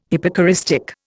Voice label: "hypocoristic", Indian